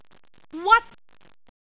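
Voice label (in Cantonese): "wat1", Cantonese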